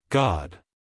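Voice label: "god", American English, male; everyone-can-use-english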